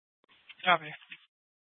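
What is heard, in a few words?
police dispatch radio, scanner; Mesa PD Dptmnt; 1 Fiesta Dispatch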